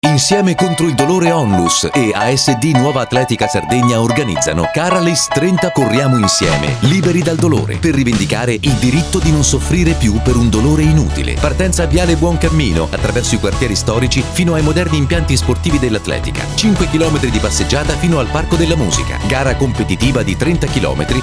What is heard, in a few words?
spot radio; karalis